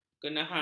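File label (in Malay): "kenahang", Malay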